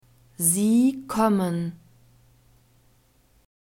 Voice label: "Sie kommen", German